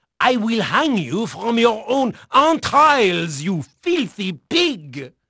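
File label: French male, voice; Pirates Of The Caribbean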